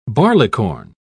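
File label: "barleycorn", British English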